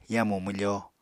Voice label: "yamu mwfo", Apatani

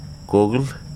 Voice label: "bite", Wahgi; lae unitech

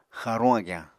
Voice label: "xaaruñ agyañ", Apatani; Material Culture

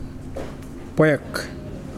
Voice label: bat, Mokilese

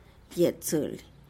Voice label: "yetzely" tree orchid

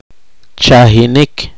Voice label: poqomchi; punzar vena

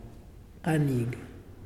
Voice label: Muyuw Die; Lae Unitech

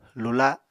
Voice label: "lula", Apatani

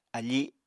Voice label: "afi", apatani

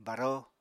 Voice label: "baró", Apatani

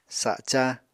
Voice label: "saca", Apatani

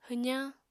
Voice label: "hwzañ", Apatani

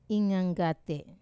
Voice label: "nganggat", to bother, Wamesa

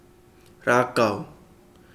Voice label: tree, Kapingamarangi

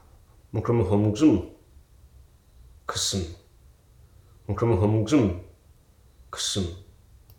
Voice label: "hag", Hruso

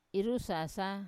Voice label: "irusasa", Wamesa; I lift

